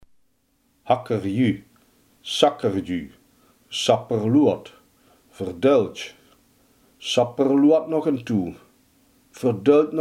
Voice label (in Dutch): "verdraaid", limburgse